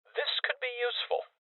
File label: Voices Loot Collect Male